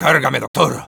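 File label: activate charge, Spanish; "Cárgame doctor."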